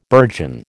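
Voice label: "burgeon", American English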